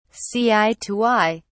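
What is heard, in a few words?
"see eye to eye", British English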